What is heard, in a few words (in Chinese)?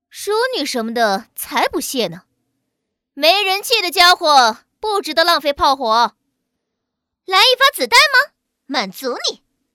女 游戏; 孙尚香